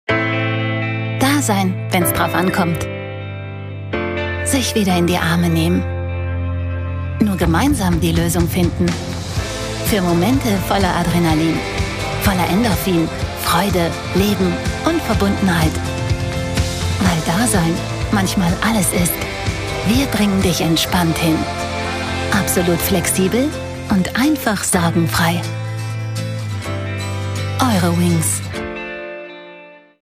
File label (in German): Feststimme TV Spot SINNLICH OFF WERBLICH; Eurowings; Dasein